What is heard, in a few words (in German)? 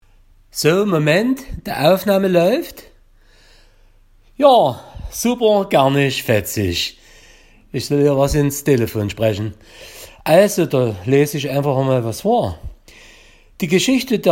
saechsischer Dialekt; Supr-Kernisch-Fetzisch